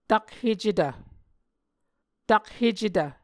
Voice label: "takhecetv", Muscogee

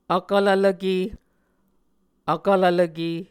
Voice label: "vkvlvlvke", Muscogee

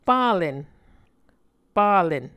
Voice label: "palen", Muscogee

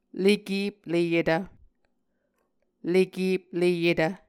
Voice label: "lekepleyetv", Muscogee

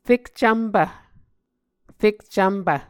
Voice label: "fekcvmpv", muscogee

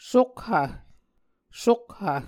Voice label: "sokhv", Muscogee

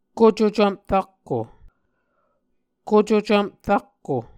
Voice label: "kucocvmp rakko", Muscogee

